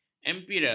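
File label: "ampere", Malay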